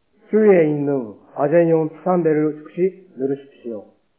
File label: korean